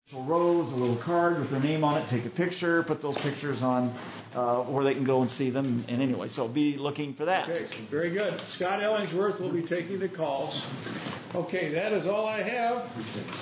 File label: ANNOUNCEMENTS